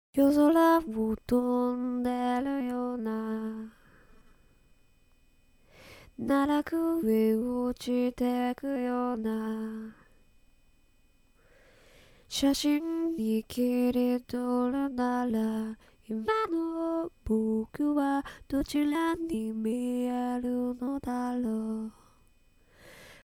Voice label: effect vo